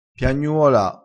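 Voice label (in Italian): "piagnuola", dialettale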